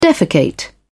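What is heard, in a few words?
"defecate", British English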